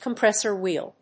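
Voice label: "compressor wheel", English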